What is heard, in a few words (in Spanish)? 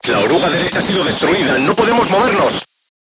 voice message right track knocked down, Spanish